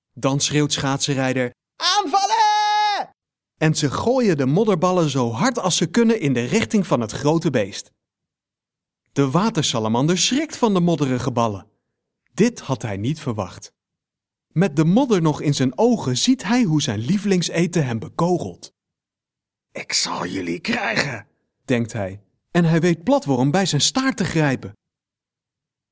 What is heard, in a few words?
audiobook; De Witte Scheet van Geelgerande Watertor